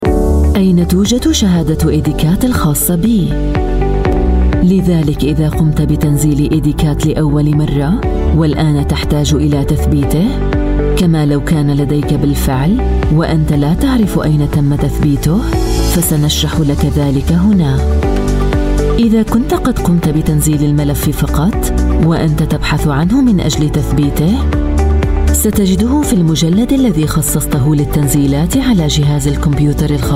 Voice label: arabic voice over female narrator

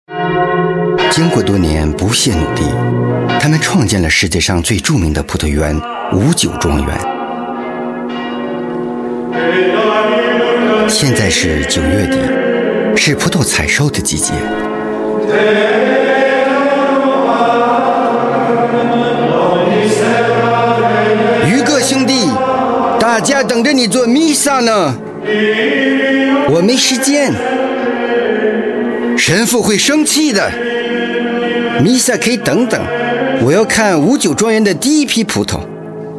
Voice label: chinese voice over male audiobook

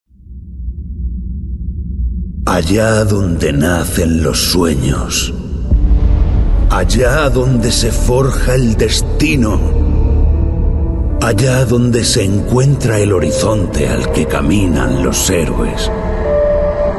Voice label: spanish voice over male narrator

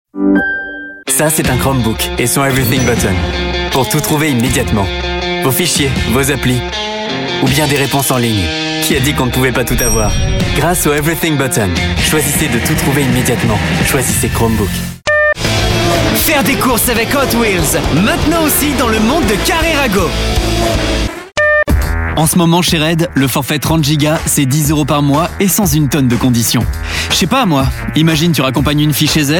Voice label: french voice over male commercial

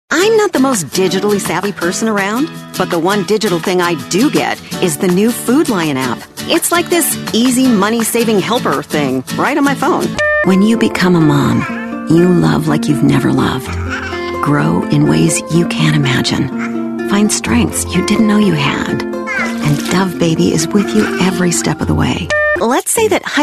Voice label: english american voice over female commercial

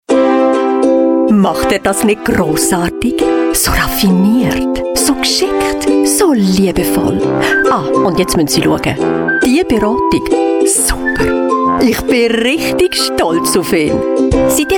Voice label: german voice over female commercial

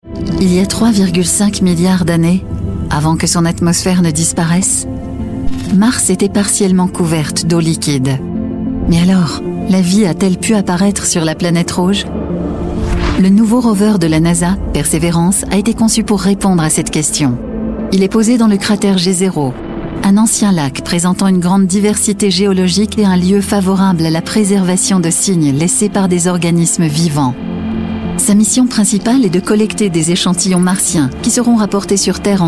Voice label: french voice over female documentary

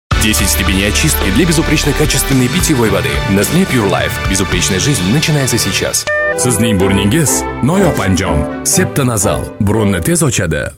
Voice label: russian voice over male commercial

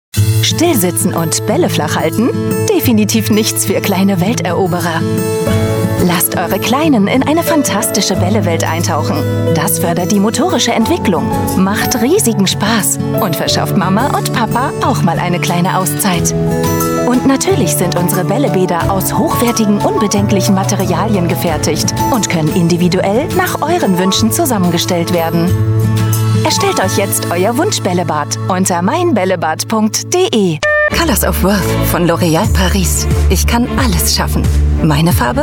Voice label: german voice over female commercial

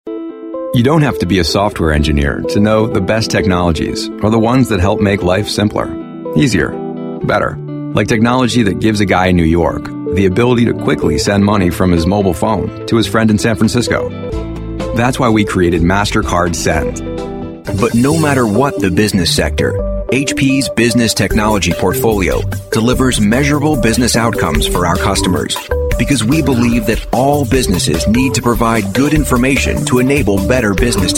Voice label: english american voice over male narrator

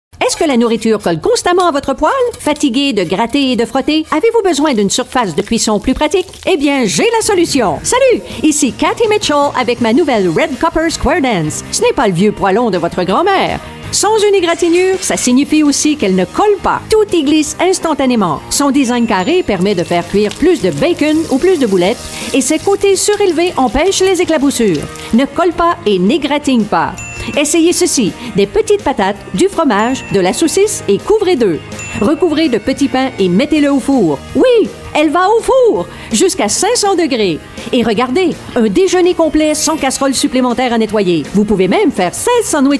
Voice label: french voice over female narrator

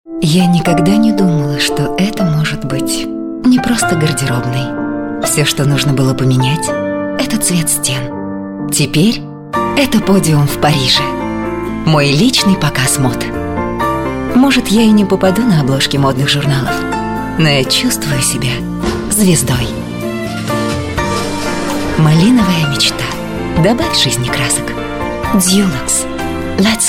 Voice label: russian voice over female narrator

